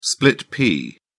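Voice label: "split-pea", British English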